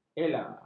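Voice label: "ela", Malay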